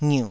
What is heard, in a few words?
"ngiuh3", taiwanese syllables